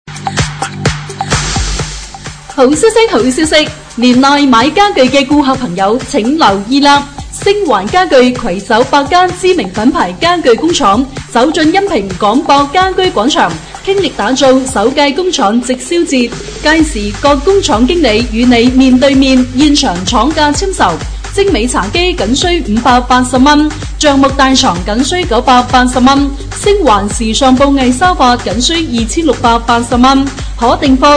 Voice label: 粤语 女, 家居广场; 港博家居广场

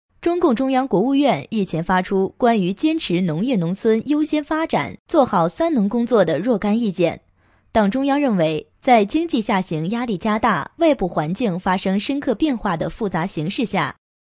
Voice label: 女 专题 新闻联播版

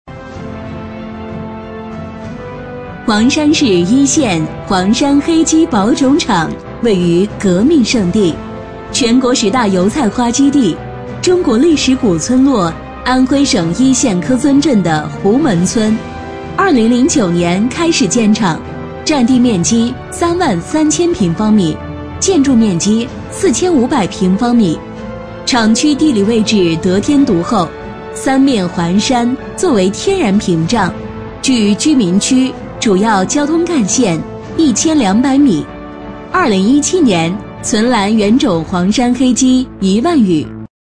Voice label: 女38号专题; 黄山黑鸡保种场

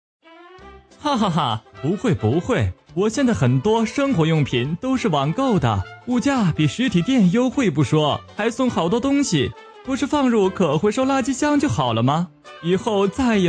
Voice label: 男 MG动画 垃圾分类样音

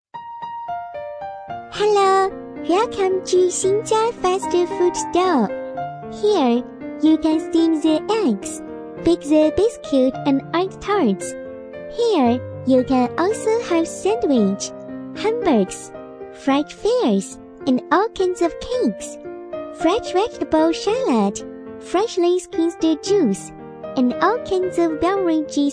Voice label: 女 童音 中英文童声女孩

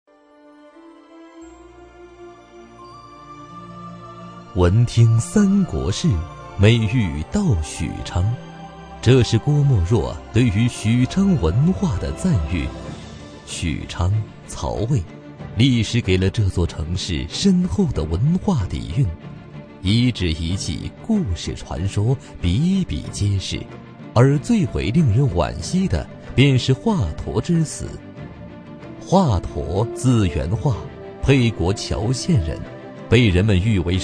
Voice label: 男 纪录片; 华佗

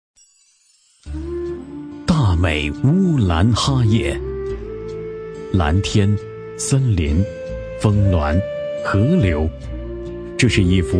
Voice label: 男 沉稳专题

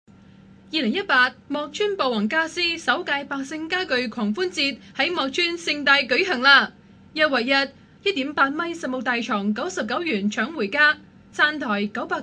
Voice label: 粤语女 家私

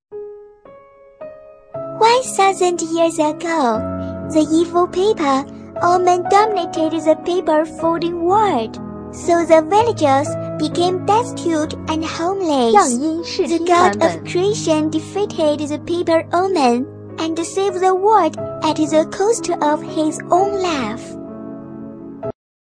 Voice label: 女 童音 童声小女孩 英文

